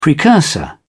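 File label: "precursor", British English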